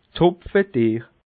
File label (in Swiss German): "hopfedeer", alsace